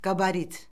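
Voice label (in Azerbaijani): "qabarit", Azerbaijani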